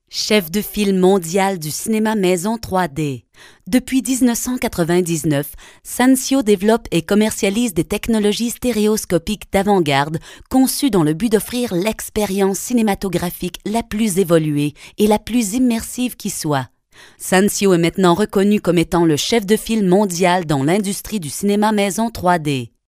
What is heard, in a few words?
French Canadian